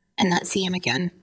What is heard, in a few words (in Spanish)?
Voice Acting es